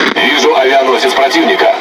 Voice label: Speech Detection Enemy Aircarrier, RU; World Of Warships